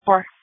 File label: Sheriff Dispatch